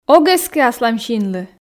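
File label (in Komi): ог эскы аслам синлы, Komi, female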